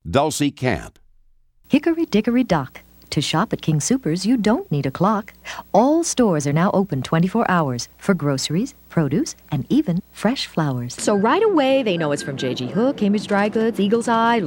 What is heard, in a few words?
commercial